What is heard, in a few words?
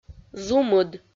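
"зумыд", Komi-Zyrian, female; audio dict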